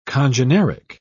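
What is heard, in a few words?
"congeneric", British English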